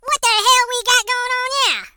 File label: Hello, Hill Billy; hedgewars